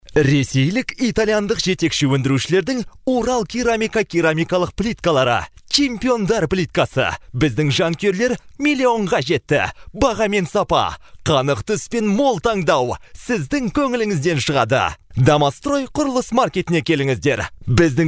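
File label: Kazakh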